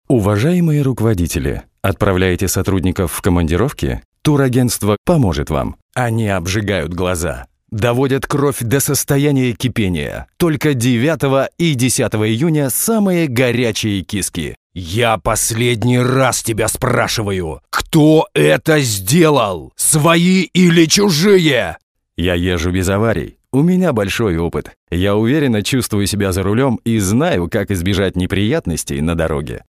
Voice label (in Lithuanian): Rusiškas balsas, vyras